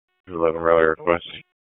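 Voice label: Police Northeast District Disp, radio; wake p25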